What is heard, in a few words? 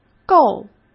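"购", Mandarin Chinese; chinese flashcards; hsk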